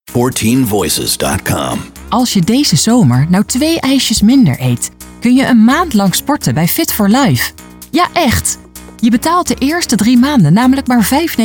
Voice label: Commercials; 14Voices